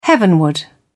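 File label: "heavenward", British English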